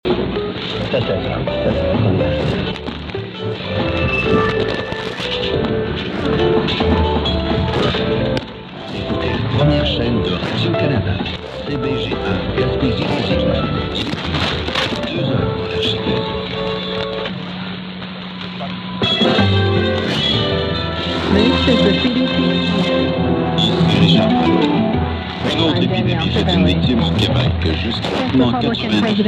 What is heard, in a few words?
540, mw dxing; cbga; cbt also